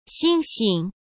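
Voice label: "猩猩", Mandarin Chinese; chinese-flashcards; hsk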